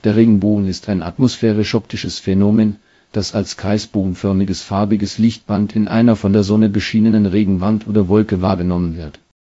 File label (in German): speaker, de DE; piper-voices